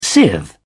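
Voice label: "sieve", British English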